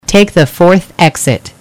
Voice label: "Fourth", eng; waze